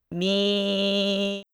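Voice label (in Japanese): "み", Japanese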